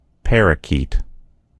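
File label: "parakeet", American English; gcompris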